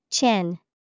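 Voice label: "chin", English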